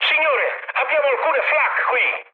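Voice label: Italian damaged aa mood high, speech; War Thunder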